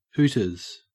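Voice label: "hooters", Australian English; pronunciations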